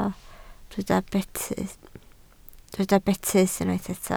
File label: "betys", Welsh